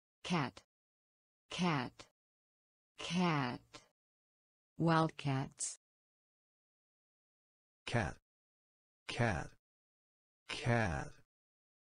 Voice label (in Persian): تلفظ امریکایی کت; تلفظ گربه به انگلیسی